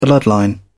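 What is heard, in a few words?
"bloodline", British English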